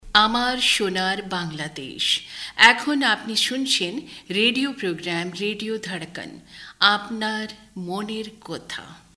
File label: bengali female